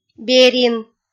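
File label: "берин", Komi, female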